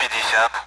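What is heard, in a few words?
Speech Sound Russian Common AWACS Numbers; 50; DCS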